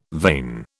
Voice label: "vane", British

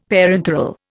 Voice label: "parenteral", Indian; Dictionary

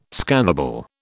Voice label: "scannable", British

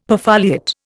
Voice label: "perfoliate", Indian; Dictionary

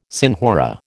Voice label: "senhora", American

